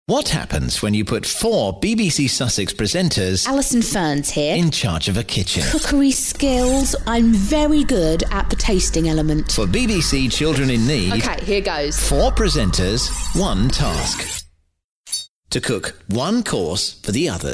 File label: promo